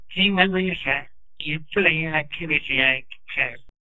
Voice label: woman speaking portuguese

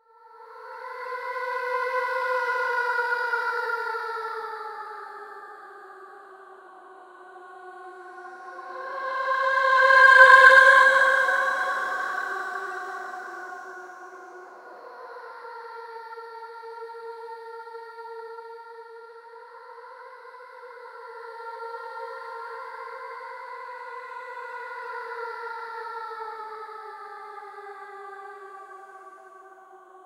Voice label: creepy terrifying voice o

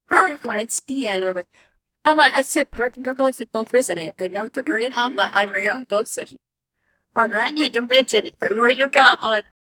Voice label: read aloud in a warm, announcer

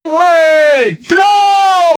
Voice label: video game announcer excl

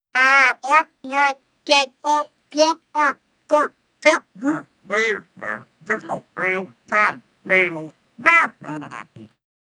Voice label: non repetitive gibberish

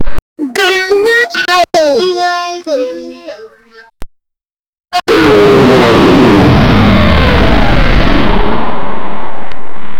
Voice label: create a woman saying, religious

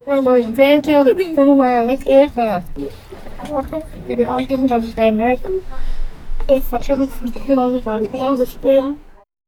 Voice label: attention please if you w, retail

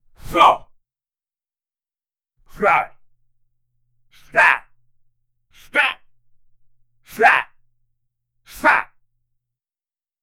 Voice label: a white male voice actor, voice over

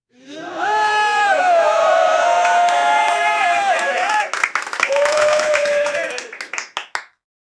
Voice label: a man shouts great, applause

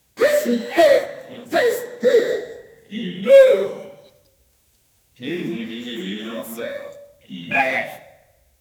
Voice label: a caveman grandma says, caveman

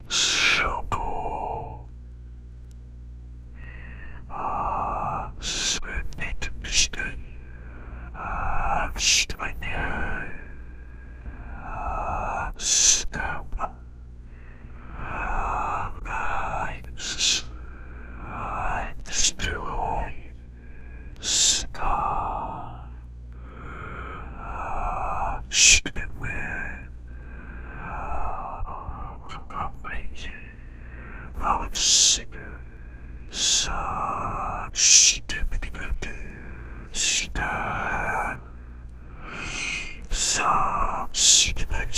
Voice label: male whisper illegible; ancient language

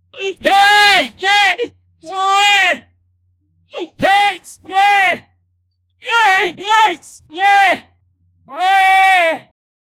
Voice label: a man yelling at his, angry

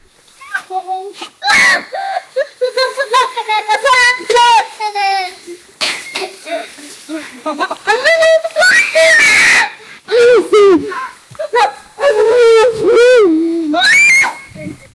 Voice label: a 22 year old man playing, brotherly love

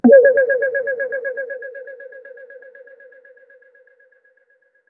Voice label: echo delay fx voice; music production

